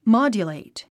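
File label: "modulate", English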